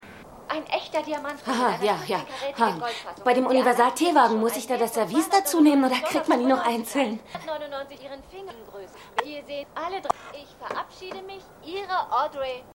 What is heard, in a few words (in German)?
Homeshopping Frau; AusgerechnetAlaska